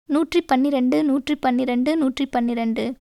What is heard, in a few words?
Tamil